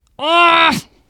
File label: reaction negative special, teen girl